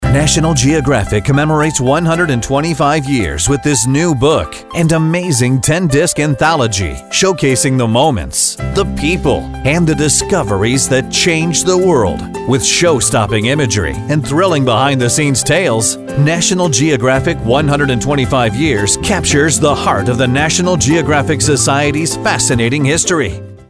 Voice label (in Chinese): 外籍男, 零售促销广播广告; National-Geographic